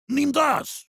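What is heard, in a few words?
Heavy meleeing, german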